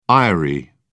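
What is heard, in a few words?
"eyrie", British English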